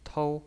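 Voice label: "tou", pinyin voice, first tone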